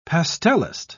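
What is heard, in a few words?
"pastelist", British English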